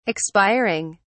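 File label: "expiring", English, British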